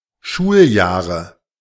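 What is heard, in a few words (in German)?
"Schuljahre", German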